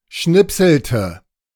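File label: "schnipselte", German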